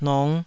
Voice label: "nong5", Taiwanese